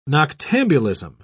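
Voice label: "noctambulism", British English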